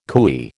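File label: "cooee", American English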